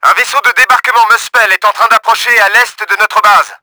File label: LANDING CRAFT, french; Battle Engine Aquila; XBOX